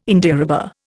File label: "Indiarubber", Indian